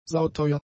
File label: "sauteuer", german; adjectives